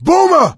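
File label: warn boomer, voice, male; Left 4 Dead 2; coach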